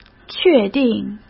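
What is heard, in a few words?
"确定", Mandarin Chinese; chinese flashcards; hsk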